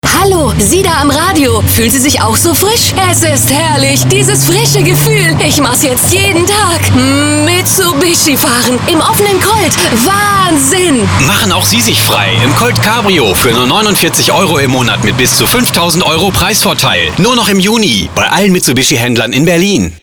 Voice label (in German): Automotive Radiowerbung